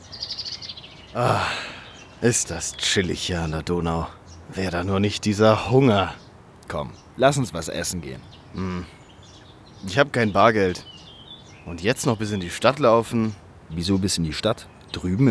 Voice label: Werbung Sparkasse

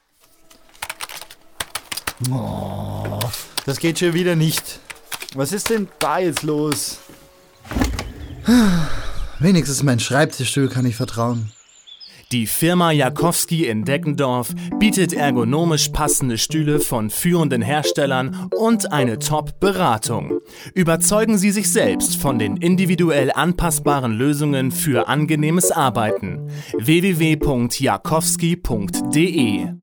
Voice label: Werbung

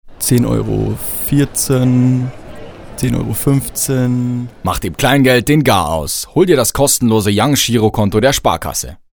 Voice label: Werbung Reminder; Sparkasse

